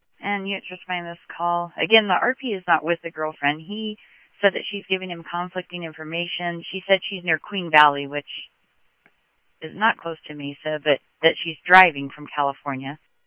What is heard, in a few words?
Mesa Simulcast, MESA PD, scanner audio